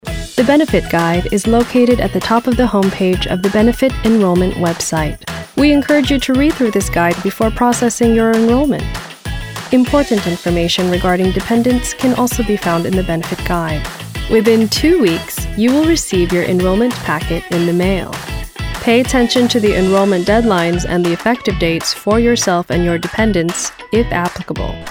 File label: english american corporate